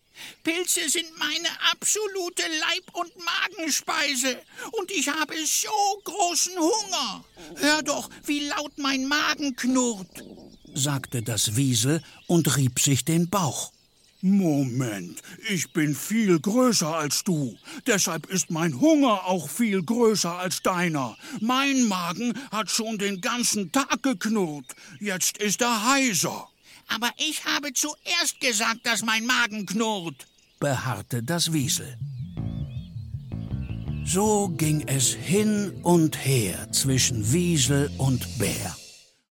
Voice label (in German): Hörbuch Kinder; Zwei für dich einer für mich